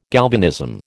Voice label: "galvanism", American